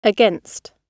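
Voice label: "against", British English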